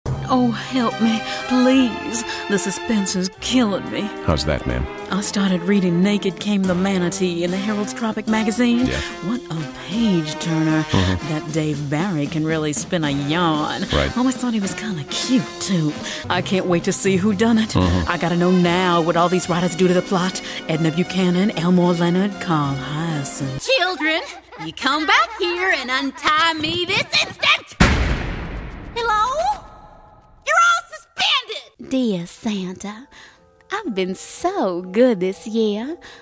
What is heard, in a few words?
Southern